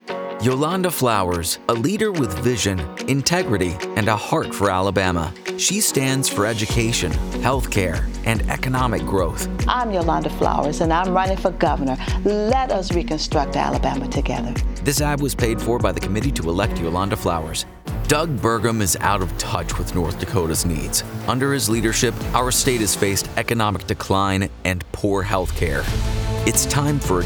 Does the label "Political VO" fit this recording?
Yes